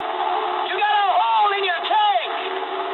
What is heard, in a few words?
eng tank damaged mood high, speech eng